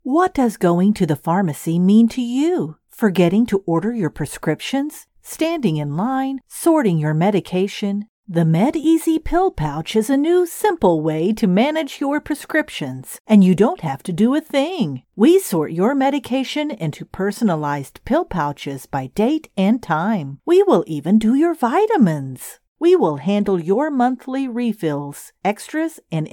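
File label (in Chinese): 外籍女英_外语_美式英语; 品质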